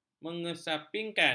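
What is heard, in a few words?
"mengesampingkan", Malay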